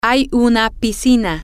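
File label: there is a swimming pool, Spanish, female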